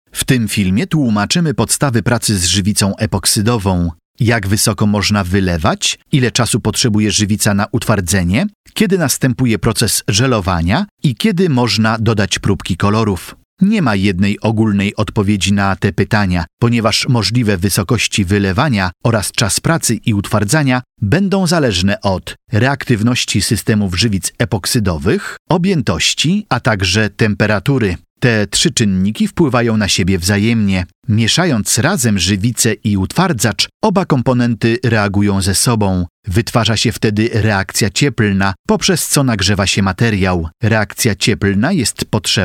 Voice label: Polish male

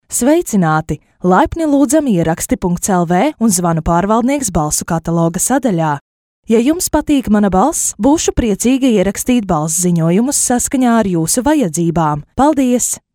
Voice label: Latvian female

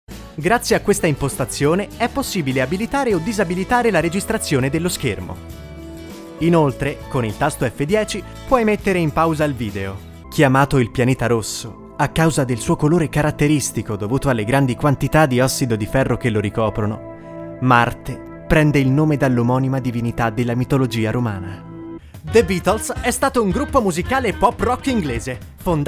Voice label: Italian

